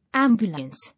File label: "Ambulance", English